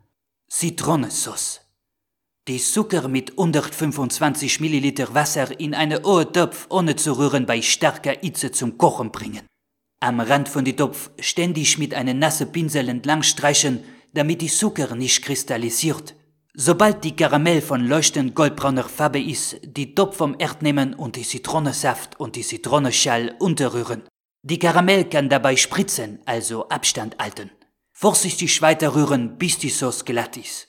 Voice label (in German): Franzose, Dialekte